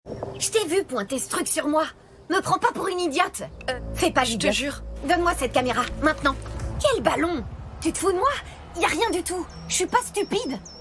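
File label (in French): DOUBLAGE - Dessin animée - frigorifiée, Comédienne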